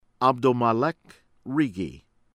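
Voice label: "Abdelmalek Rigi", pronunciation, US English; from Pakistan; Voice of America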